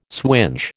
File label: "swinge", American